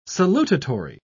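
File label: "salutatory", British English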